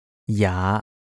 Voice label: "jaa5", Cantonese

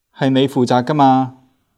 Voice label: "gaa1 maa3", Cantonese

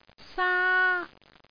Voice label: "saa1", cantonese

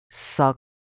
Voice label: "sak1", cantonese

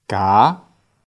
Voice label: "gaa2", Cantonese

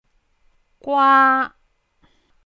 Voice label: "gwaa1", Cantonese

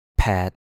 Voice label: "pet6" Cantonese

